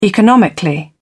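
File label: "economically", British English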